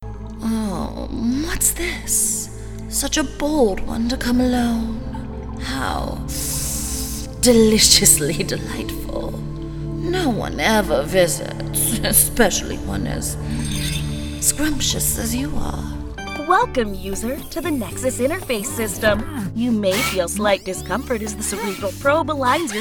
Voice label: women video games; She/They. Has a sarcastic, rich alto, millennial, femme-sounding non binary voice.

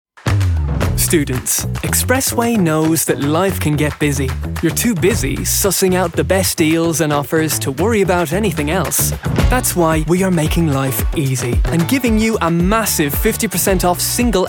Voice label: irish commercial; Youthful, versatile voice with a talent for accents & characters. Irish (Naive), British Standard, and American Standard.

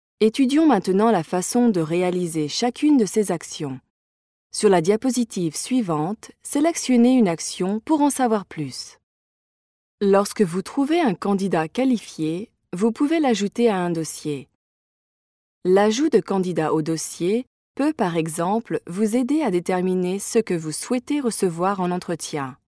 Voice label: french euro corporate; FRENCH (EURO) » Bright and engaging French voice.

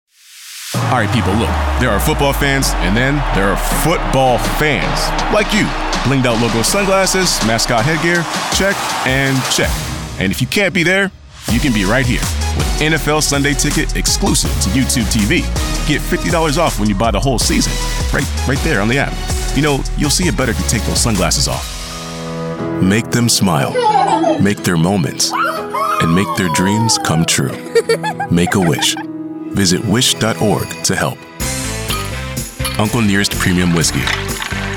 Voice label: african american commercial; AFRICAN AMERICAN » Baritone, confident, cool, smooth, believable, friendly, Millennial, and warm.

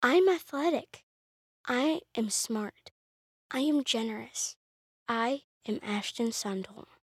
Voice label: kids mini demo

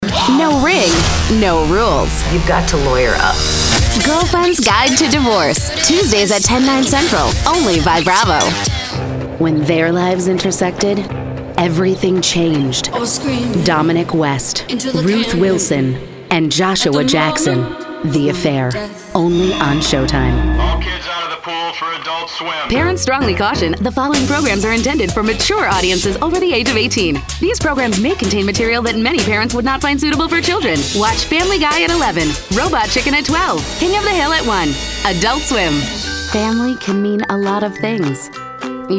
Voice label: women promos; Hip and friendly millennial with a textured and relatable sound

